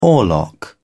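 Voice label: "oarlock", English, British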